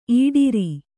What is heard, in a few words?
"ಇಡರು", Kannada